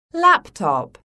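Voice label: "LAPTOP", English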